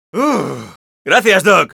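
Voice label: Scout thanks for the heal, Spanish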